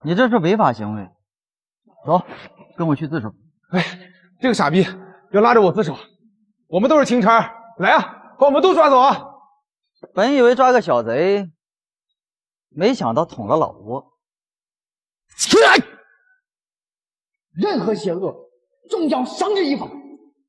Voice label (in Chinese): 名场景 干声; 昊京